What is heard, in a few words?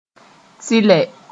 "tɩlɛ", moore